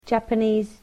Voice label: "Japanese", english